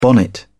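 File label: "bonnet", British English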